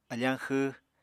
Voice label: "afañ xw", Apatani